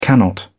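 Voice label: "cannot", British English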